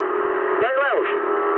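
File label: English bailout mood med; speech; War Thunder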